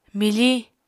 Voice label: "mifiñ", Apatani